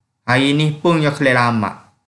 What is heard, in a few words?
Kelantan-Pattani Malay